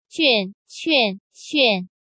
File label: juan quan xuan 倦 劝 炫, Chinese Pinyin